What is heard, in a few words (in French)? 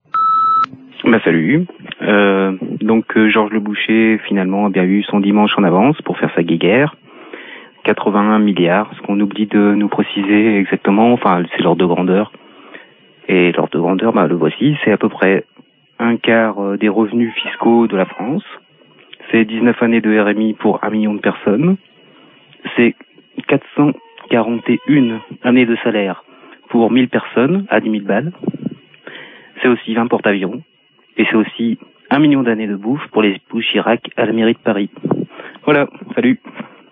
Irak couts repondeur